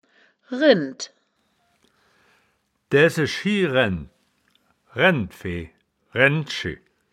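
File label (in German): "rend", Wittlicher Wörterbuch; das wittlicher woerterbuch; woerterbuch vertonung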